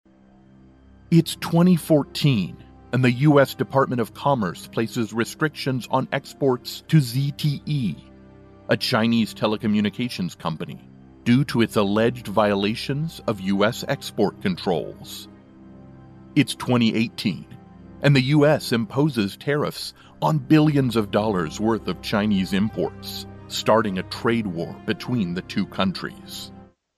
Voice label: 美式男声

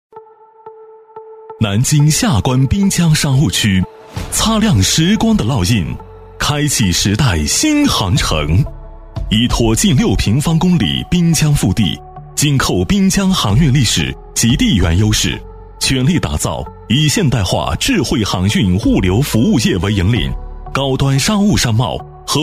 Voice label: 男 企业宣传 大气厚重; 至美滨江

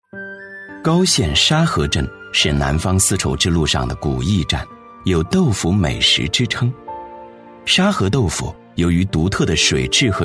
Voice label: 男 纪录片 舌尖; 徐阿婆红豆腐传统技艺

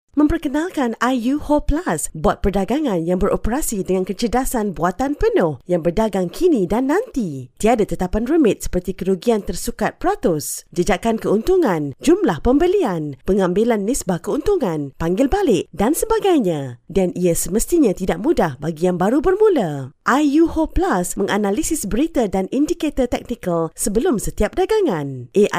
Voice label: 马来语女声

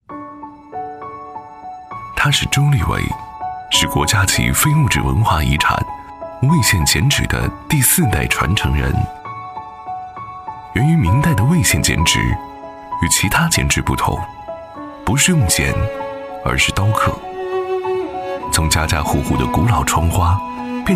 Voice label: 男-人物专题 国家级非遗传人; 一纸情缘; 男29-时尚大气; 质感磁性

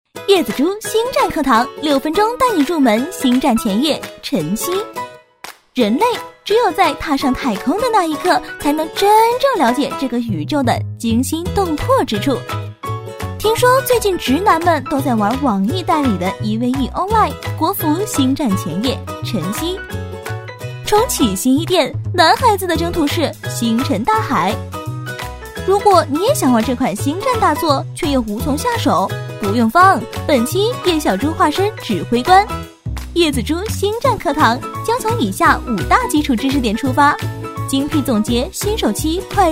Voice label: 女 游戏解说轻松愉快; 叶子猪

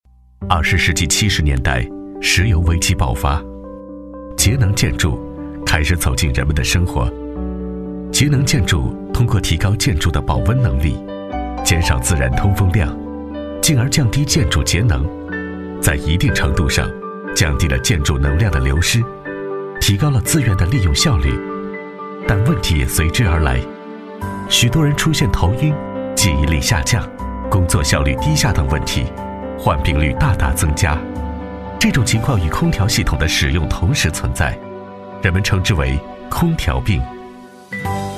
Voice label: 男 宣传片 素人旁白; 节能建筑; 男42-磁性质感 质感磁性

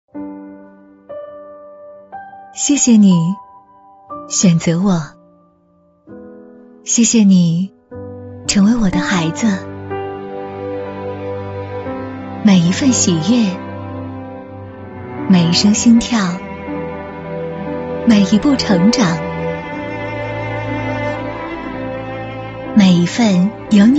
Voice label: 女 TVC 优雅 温暖; 子初TVC

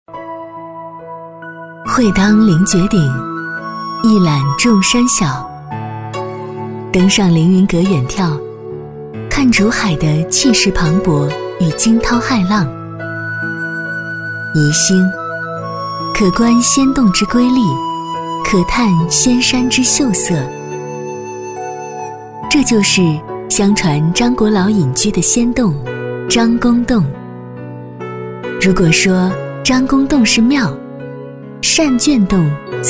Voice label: 女 专题解说 山泉水 舒缓知性; 磁性甜美; 温和抒情; 女35-专题解说【山泉水-舒缓知性】

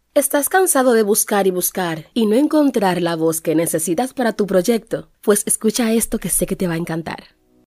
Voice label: 西班牙女声

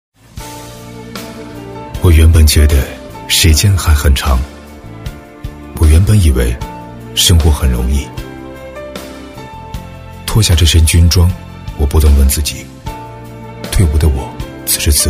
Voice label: 男, 宣传片, 退伍军人, 磁性自白, 励志; 男17-高端硬朗; 高端大气

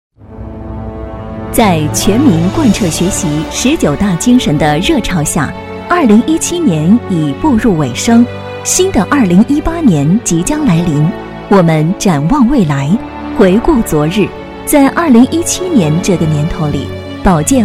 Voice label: 女 专题配音 大气稳重; 十九大; 中英双语; 大气浑厚

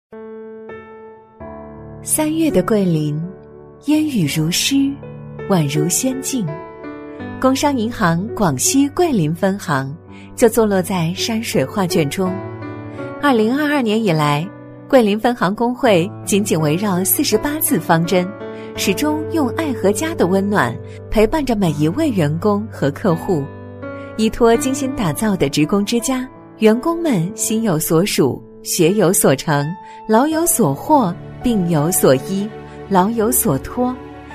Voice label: 女 银行宣传 柔和知性; 成熟知性; 工行桂林分行

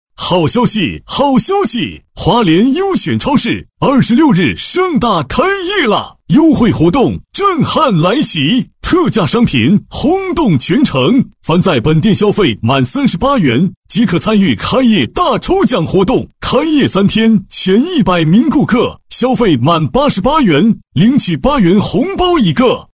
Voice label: 激情促销男; 超市盛大开业; 华联超市

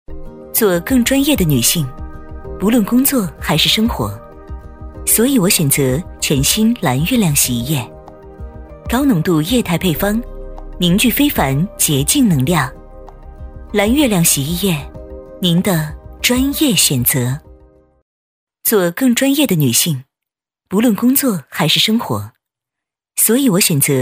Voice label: 女 产品广告 洗衣液 大气干练; 蓝月亮洗衣液; 大气庄重 年轻稳重

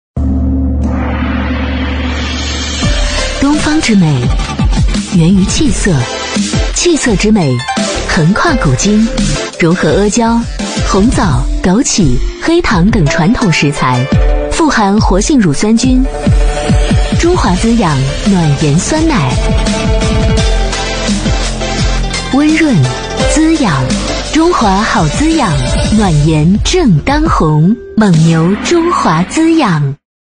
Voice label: 女, 品牌广告, 酸奶, 质感大气; 磁性甜美; 年轻时尚; 蒙牛中华滋养暖妍酸奶

